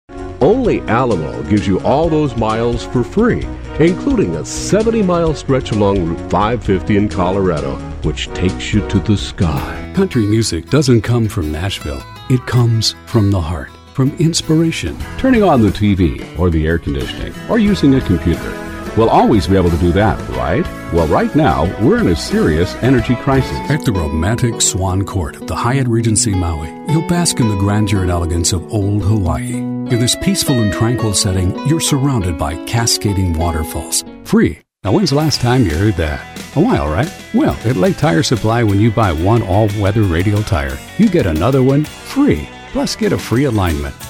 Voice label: Commercials